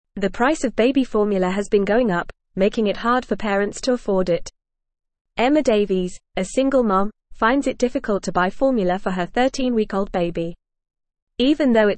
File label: English Newsroom Upper Intermediate FAST Reading; Rising Baby Formula Costs Strain Parents Budgets